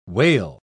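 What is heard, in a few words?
"wail", English word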